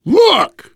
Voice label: look, voice, biker; Left 4 Dead 2; survivor